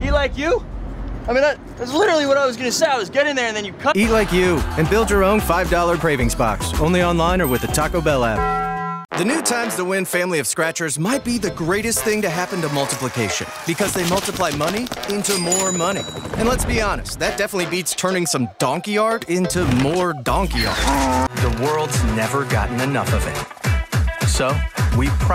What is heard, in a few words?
men commercial